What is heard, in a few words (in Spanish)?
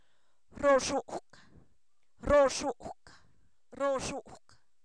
hombro, Zapoteco, san miguel albarradas; cuerpo; INALI